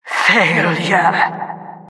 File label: announcer failure